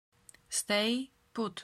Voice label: stay put pronunciation slow; anglicke frazy